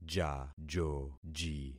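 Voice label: "jajoji", concatenated syllables; Affixing experiment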